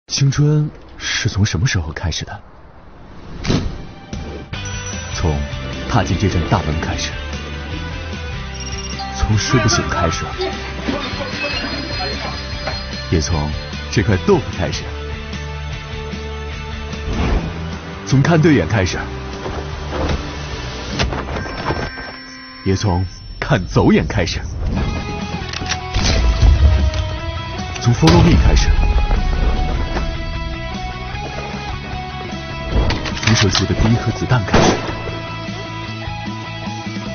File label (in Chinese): 男 青春 TVC; 男B3-磁性稳重; 年轻时尚